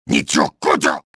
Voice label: chase, trandoshan; XBOX